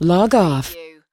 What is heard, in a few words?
US female log off; lynne publishing